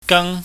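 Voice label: "gang", Cantonese, high falling tone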